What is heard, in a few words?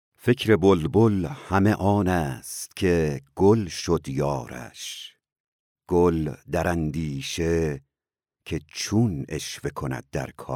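Farsi, Iran, male, voice over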